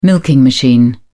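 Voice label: "milking machine", British English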